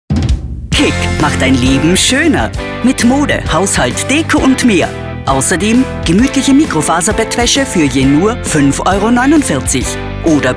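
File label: German, female, commercial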